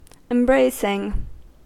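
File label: "embracing", US English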